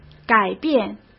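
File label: "改变", Mandarin Chinese; chinese flashcards; hsk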